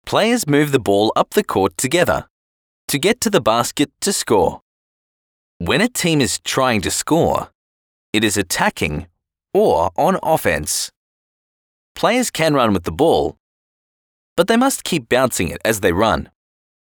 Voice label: childrens audio book voice over